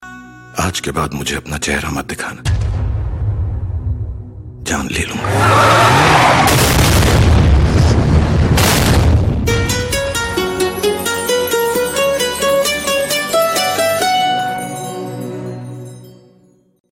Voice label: sad dialogue; dilwale 2015 movie